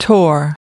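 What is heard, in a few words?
"tore", US English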